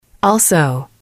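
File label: "also", english, female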